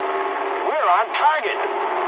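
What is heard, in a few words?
English near waypoint mood medium; speech; War Thunder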